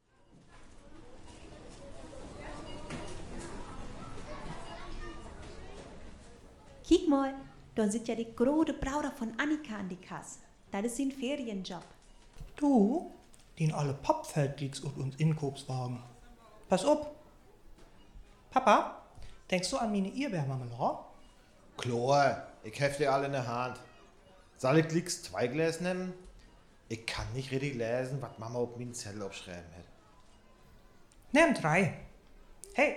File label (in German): Dialog, niederdeutsch